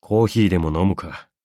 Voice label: level up, ja; Cookie Run